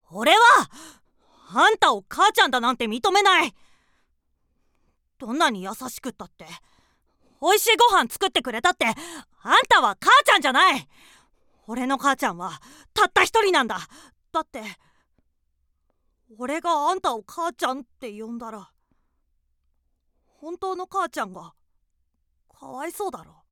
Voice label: boy